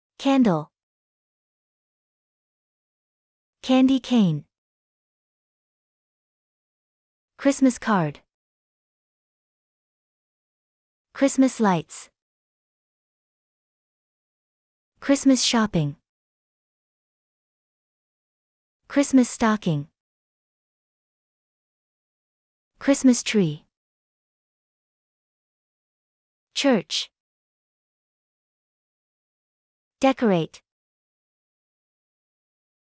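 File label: American; christmas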